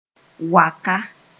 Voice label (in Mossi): "waka", Mooré